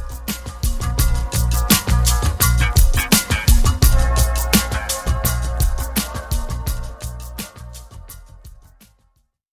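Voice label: German, accented English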